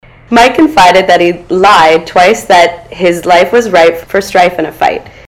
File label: mike lied twice, ontario